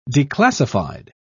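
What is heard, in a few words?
"declassified", British English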